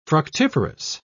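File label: "fructiferous", British English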